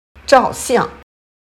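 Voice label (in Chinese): "照相", Chinese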